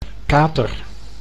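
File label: "kater", Dutch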